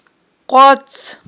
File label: "къвац", Aghul